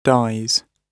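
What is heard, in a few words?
"dies", British English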